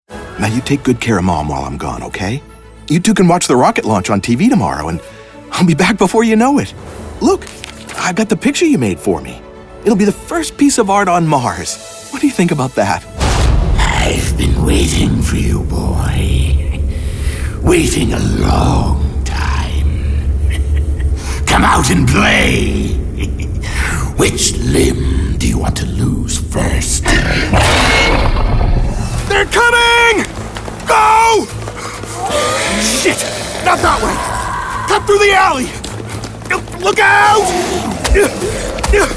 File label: vo video game; global voice acting academy